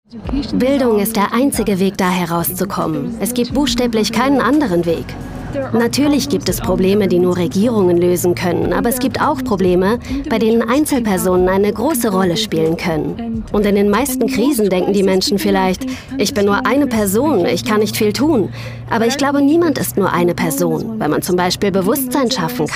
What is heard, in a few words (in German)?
Voiceover; ZDF-Auslandsjournal